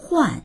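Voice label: "huan4", Mandarin Chinese; 换; 交換する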